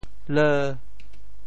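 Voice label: "leu5", Teochew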